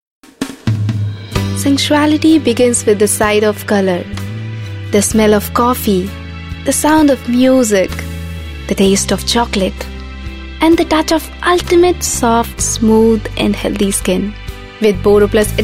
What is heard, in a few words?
Female, English, India